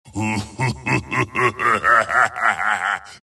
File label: wraith death; dota; skeleton king